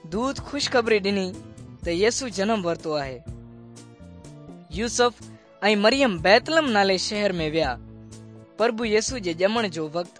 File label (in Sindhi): good news sindhi dukslinu, drama